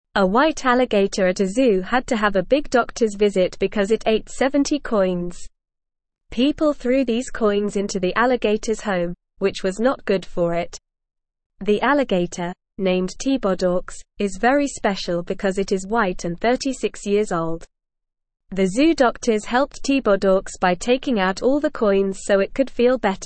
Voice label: English Newsroom Beginner NORMAL Reading; White Alligator Eats Coins Zoo Doctors Help